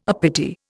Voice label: "uppity", Indian